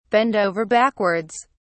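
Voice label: "bend over backwards", English, British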